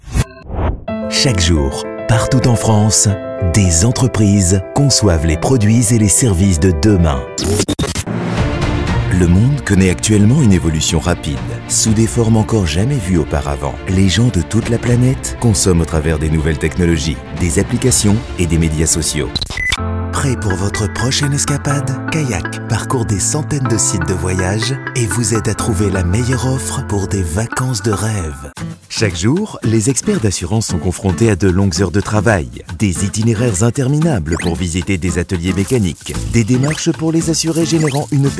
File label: French Voice Over ads in various emotions